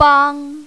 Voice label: "bang1", mandarin, female